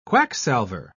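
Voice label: "quacksalver", British English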